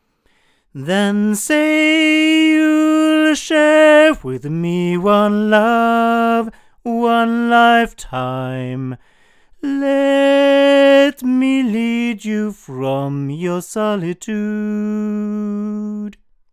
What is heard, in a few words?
male; BLUE Babybottle; microphones